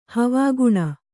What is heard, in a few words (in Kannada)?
"ಹವಾಗುಣ", kannada